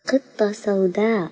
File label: Arabic BLACK CAT; farm